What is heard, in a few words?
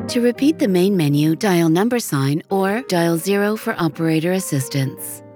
To Repeat IVR English